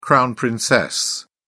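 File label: "crown-princess", British English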